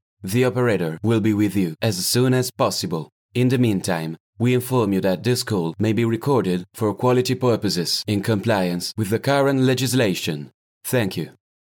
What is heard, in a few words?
male italian voice over english